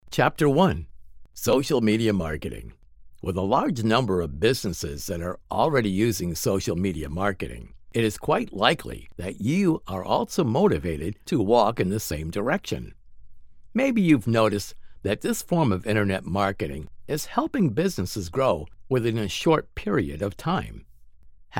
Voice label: AUDIOBOOK; BUSINESS